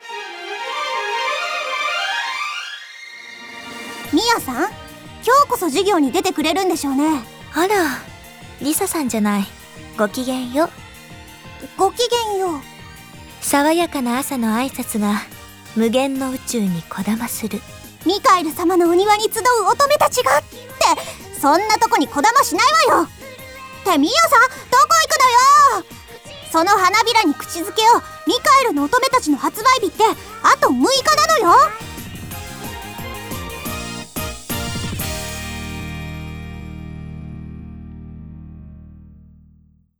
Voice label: Voice Drama